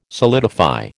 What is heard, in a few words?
"solidify", American